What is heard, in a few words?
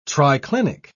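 "triclinic", British English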